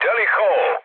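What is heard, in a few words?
Serbian enemy detected mood med; speech; War Thunder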